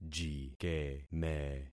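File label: "jikeme", concatenated syllables; Affixing experiment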